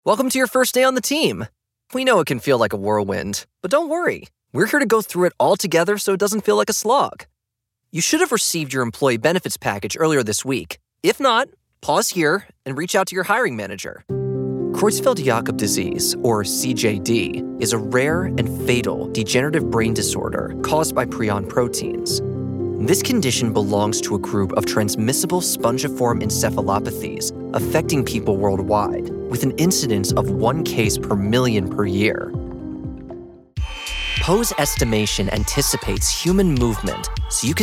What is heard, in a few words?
Narration